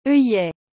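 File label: "oeillet", French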